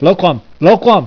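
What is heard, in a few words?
Vietnamese, ground forces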